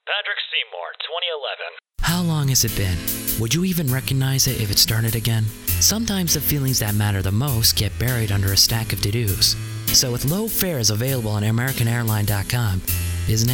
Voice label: Commercial VO, male